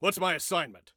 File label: Command Assignment, Male; T2 Male 04